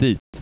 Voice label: "dit", Dutch